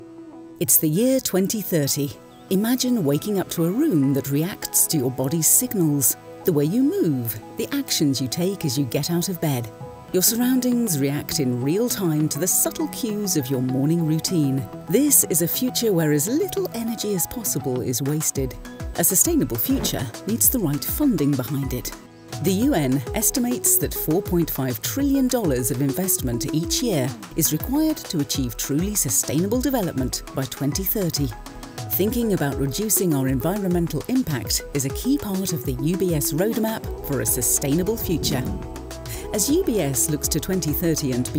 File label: British RP Voice Actor; Business Insider; BBC Confirmation Video